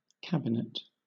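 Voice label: "cabinet", British English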